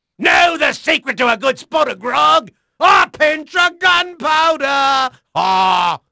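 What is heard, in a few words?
English male; Pirates Of The Caribbean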